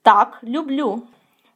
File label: dialogue, Ukrainian